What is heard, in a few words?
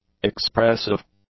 "expressive", english; adjectives